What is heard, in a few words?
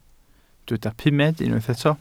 "pumed", welsh